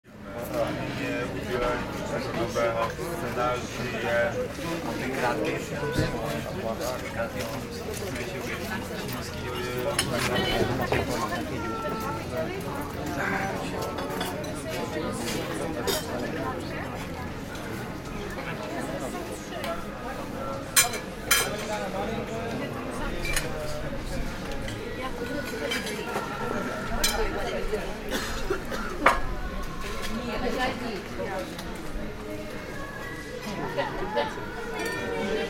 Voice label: Restaurant garden ambience exterior people talking